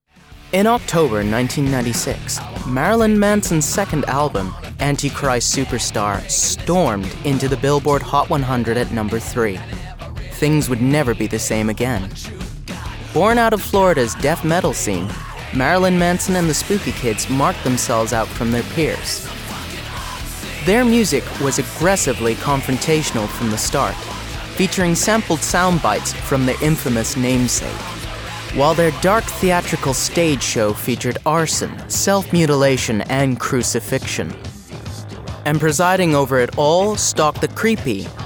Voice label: Documentary Reading; Marilyn Manson